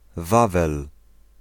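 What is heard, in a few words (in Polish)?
"Wawel" Polish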